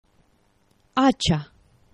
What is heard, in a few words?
"acxa", esperanto; geneva